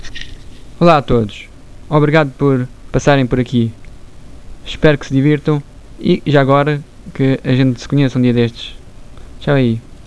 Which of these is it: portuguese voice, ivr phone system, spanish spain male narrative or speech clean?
portuguese voice